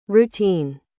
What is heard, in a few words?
"routine", English